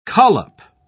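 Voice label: "collop", British English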